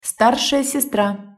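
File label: "starsaja sestra", Russian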